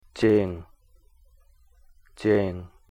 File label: "djiing", day, Cahto; "j" in "Jean"